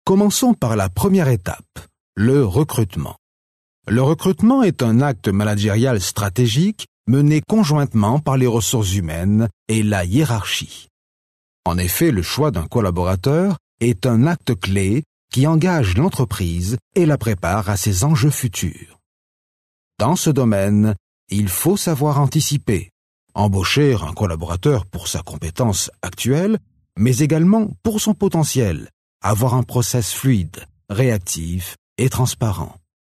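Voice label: French, male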